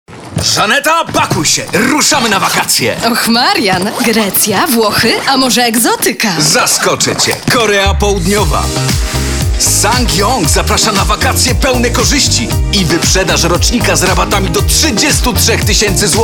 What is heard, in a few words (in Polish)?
reklama wyprzedaz; ssangyong